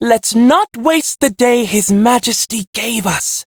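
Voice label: Ambient Male Brisk, Dialog; Duviri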